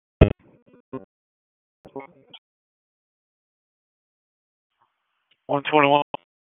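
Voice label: Police Dispatch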